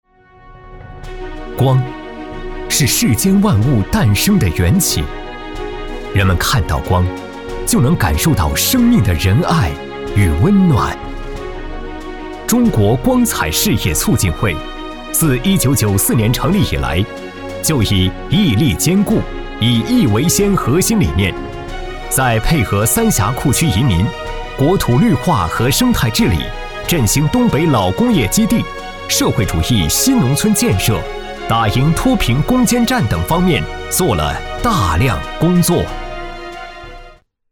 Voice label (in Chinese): 男 企业宣传; 光彩会